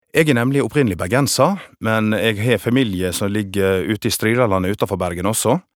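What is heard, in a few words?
Norwegian bergensdialekt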